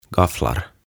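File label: "Gafflar" Swedish; Haarukat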